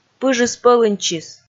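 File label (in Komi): "пыжыс пӧлыньтчис", Komi, female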